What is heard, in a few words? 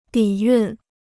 "底蕴", Chinese